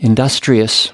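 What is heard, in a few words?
"industrious", US English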